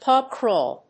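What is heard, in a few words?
"pub-crawl", English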